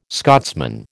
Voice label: "Scotsman", American